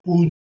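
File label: "ud2", Proto-Indo-European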